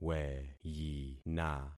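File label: "weyina", concatenated syllables; Affixing experiment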